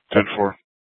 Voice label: PD Dispatch

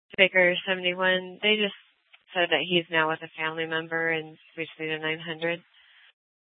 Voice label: Superstition Dispatch, Mesa PD

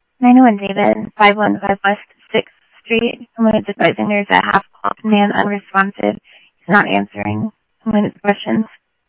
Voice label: Tempe Police, scanner audio; SimulcastF-Tempe

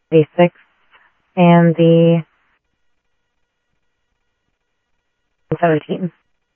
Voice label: scanner audio trunk

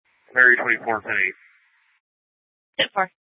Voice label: Mesa PD Fiesta Dispatch

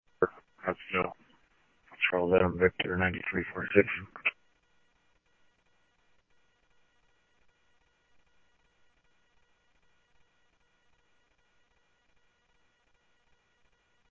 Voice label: Police, scanner audio trunk; H-Scottsdale

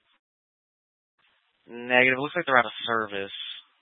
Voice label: Mesa PD Dispatch; TOPAZ Rgnl Wireless Co-op (TRWC); 1 Fiesta Dispatch

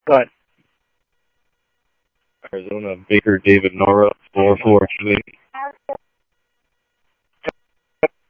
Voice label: Simulcast Police Dep, scanner audio trunk; Mesa Simulcast; MESA PD 2 Centrl; MesaPoliceDep

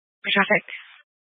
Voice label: Police Dispatch

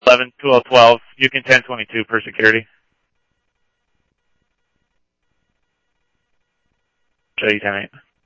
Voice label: PD Police, scanner audio

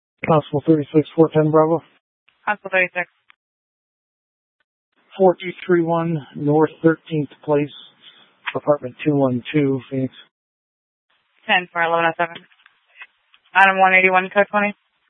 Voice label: Sheriffs Office, scanner

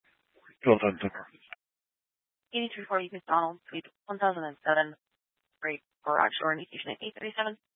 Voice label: Scottsdale Police, Simulcast; Simulcast H- Scottsdale

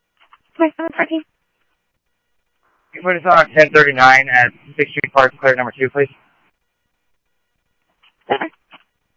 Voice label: Tempe Police, scanner

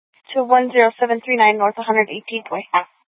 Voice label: Dispatch; Scottsdale

